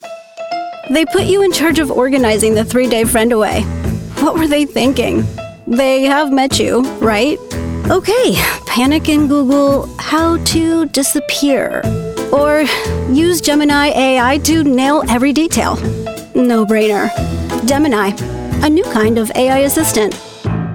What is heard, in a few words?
Commercial voiceover relatable real conversational; Google Gemini